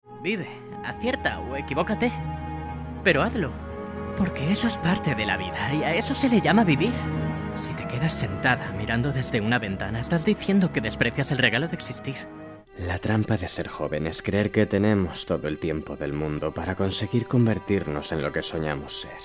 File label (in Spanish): muestras voz